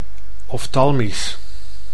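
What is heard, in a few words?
"oftalmisch", Dutch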